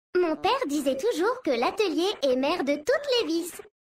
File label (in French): VF Mecagnome Femme Blague; Atelier